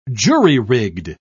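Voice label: "jury-rigged", British English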